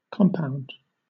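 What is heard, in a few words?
"compound", British English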